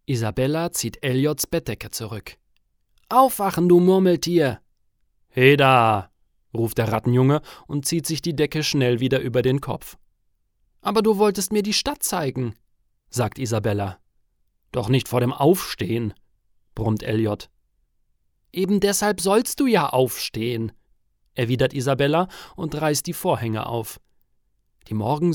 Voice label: Kinderhoerbuch

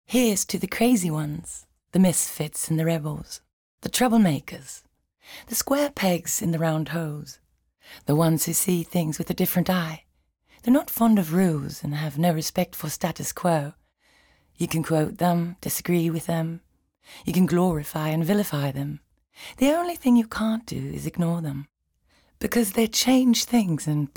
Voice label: us englisch

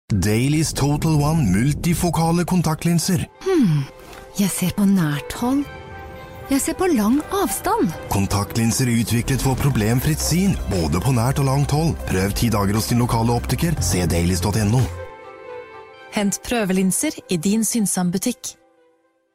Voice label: NORWEGISCH MALE Werbung Off TV Commercial; Dailies Total One; Acron; LOFT VOICES

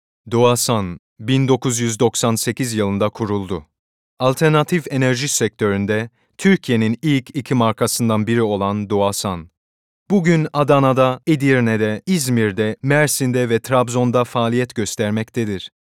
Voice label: Imagefilm Tuerkce

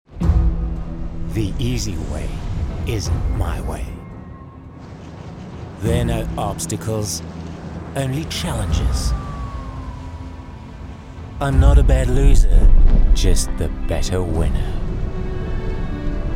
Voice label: Kinowerbung englisch

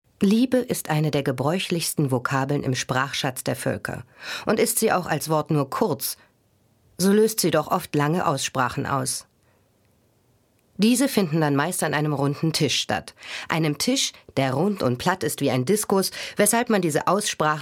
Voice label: kommentar

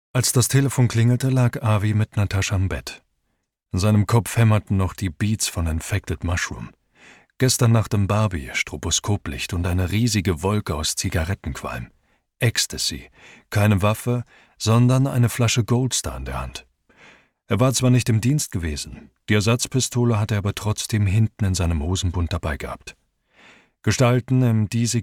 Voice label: Professioneller Sprecher Hoerbuch, Audiobook (Hörbuch), German; voice; Maror - Lavie Tidhar

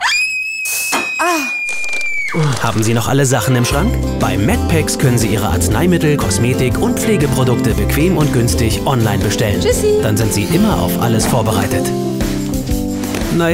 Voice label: Werbung TV, Commercial, German; Medpex

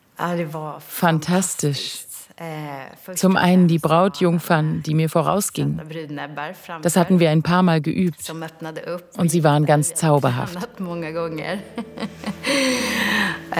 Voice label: Doku Reportage; Königliche Brautkleider; short

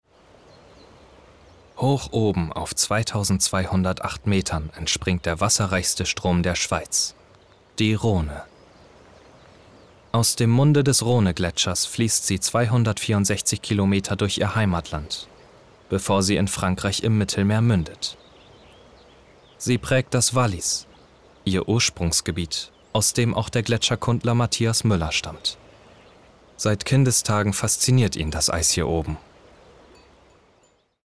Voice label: Doku; Schweiz

